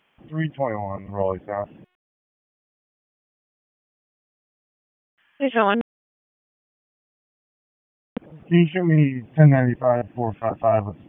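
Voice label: Police Northeast District Dispatch; Raleigh; wake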